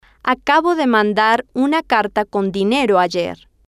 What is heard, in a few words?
acabo De Mandar Una Carta Con Dinero Ayer, Spanish